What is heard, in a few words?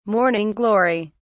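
"morning glory", British English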